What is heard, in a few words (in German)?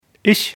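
"ich", German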